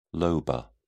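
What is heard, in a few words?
"lobar", English, British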